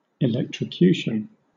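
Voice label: "electrocution", British English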